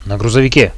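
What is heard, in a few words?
on the truck, Russian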